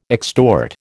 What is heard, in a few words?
"extort", American